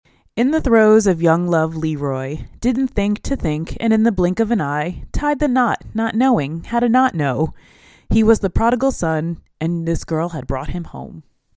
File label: Blue Nessie close distance, microphones